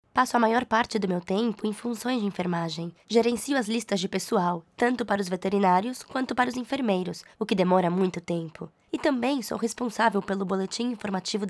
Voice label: brazilian f ivr, portuguese